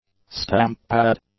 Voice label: "stamp pad", english; nouns